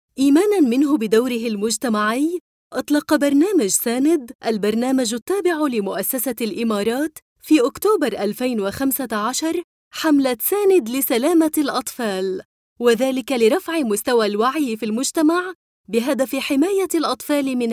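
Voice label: Arabic narration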